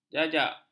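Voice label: "jajak", Malay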